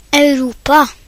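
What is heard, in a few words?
"Europe", Norwegian; gcompris; geography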